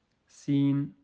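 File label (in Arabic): "س", Arabic; quiz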